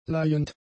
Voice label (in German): "leihend", German; adjectives